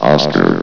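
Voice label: "oscar", male synthesized announcer voice; blizzard; hlvox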